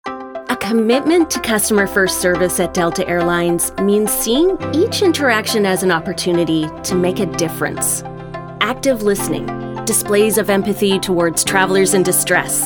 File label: Corporate, Female Voice Over